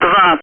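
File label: Speech, Russian, air traffic control; Digits; DCS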